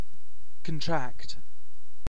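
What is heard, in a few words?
"contract", British English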